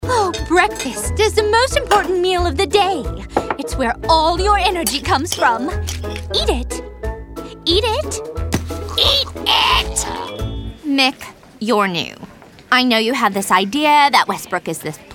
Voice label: animation